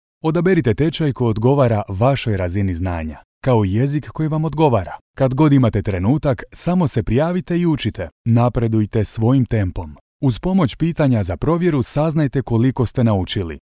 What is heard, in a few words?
CROATIAN Narration Male